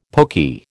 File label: "pokey", American